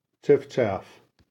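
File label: "chiffchaff", American English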